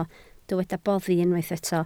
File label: "boddi", Welsh